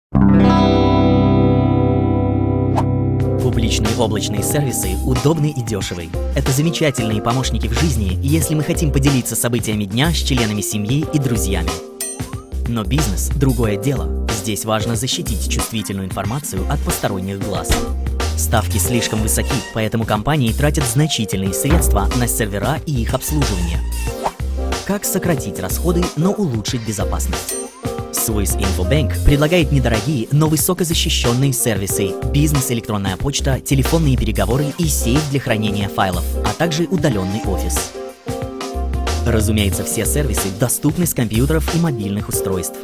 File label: Russian Voice Over; SwissInfoBank